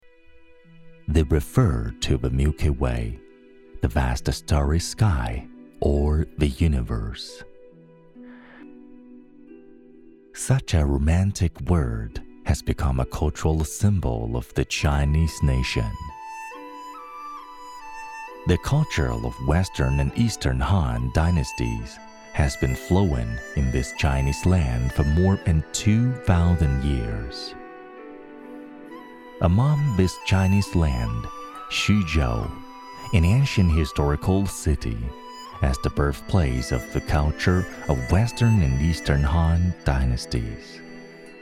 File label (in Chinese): 男 英文 历史感纪录片 非遗; 徐州